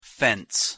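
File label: "fence" pronunciation English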